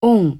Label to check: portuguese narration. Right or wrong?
Right